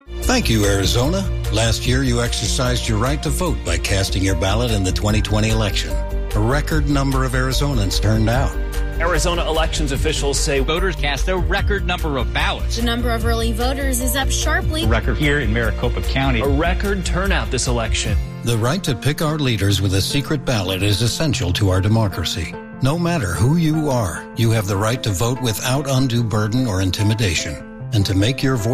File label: Voting Rights Radio Ad